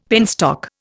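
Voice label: "penstock", Indian English; Dictionary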